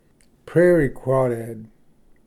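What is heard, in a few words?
"prairie crawdad", English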